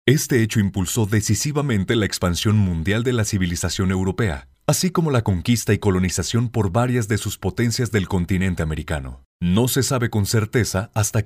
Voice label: locutor mexicano mexican voiceover